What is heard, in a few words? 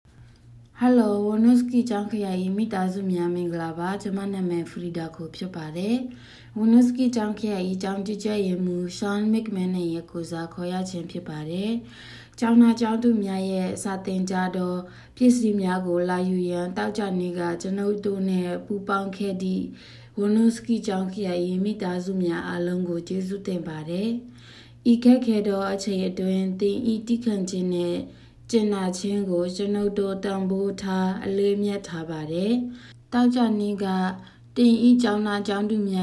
Burmese